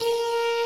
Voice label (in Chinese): "诶" A#4; 轻音少女